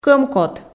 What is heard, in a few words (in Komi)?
"кӧмкот" Komi